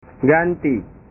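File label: "ganti", Indonesian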